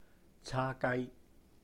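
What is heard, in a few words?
"chā-gāi", Cantonese; BBQ pork & Chicken; 叉雞; caa1gai1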